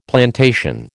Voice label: "plantation", American

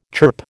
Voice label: "chirp", American

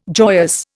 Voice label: "joyous", Indian

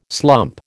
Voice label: "slump", American

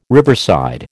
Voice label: "riverside", American

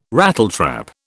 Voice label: "Rattletrap", British

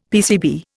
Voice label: "PCB", Indian; Dictionary

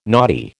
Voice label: "naughty", American

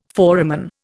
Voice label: "foramen", Indian

